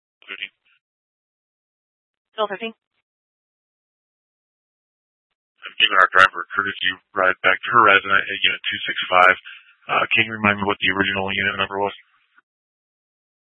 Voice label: Scottsdale Police, Simulcast

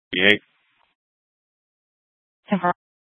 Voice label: Dispatch; Scottsdale

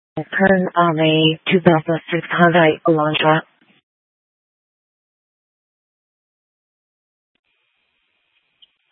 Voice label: Police South Dispatch, scanner; Glendale Police; Simulcast B- Phoenix Fire and Public Works

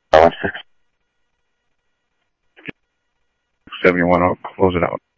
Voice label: scanner audio trunk

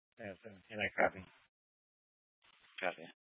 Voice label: Mesa PD Dispatch

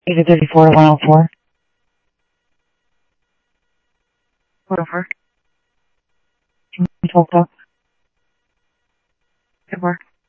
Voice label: Police, scanner audio trunk

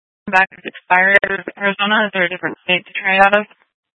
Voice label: Sheriffs Office, scanner

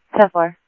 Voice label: Mesa Simulcast, MESA PD, scanner audio

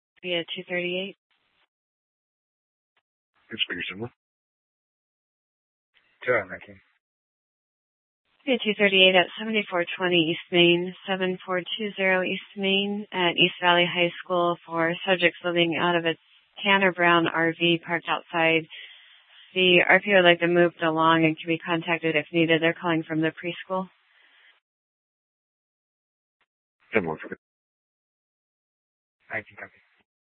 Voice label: Superstition Dispatch, Mesa PD

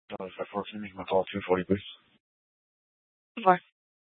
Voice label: Police Dispatch

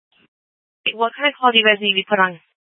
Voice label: Police Dispatch

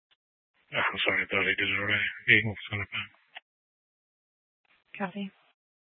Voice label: Mesa PD Fiesta Dispatch

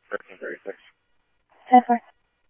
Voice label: Scottsdale Police, scanner audio

